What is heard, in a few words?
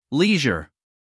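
"leisure", American English, male; everyone-can-use-english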